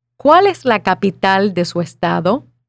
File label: Spanish